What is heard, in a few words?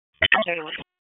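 Dispatch, p25; WSO DISP 1; wake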